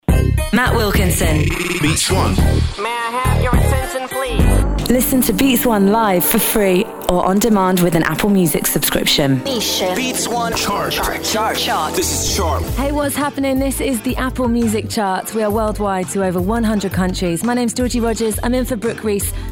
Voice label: Promo; Beats 1